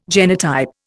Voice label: "genotype", Indian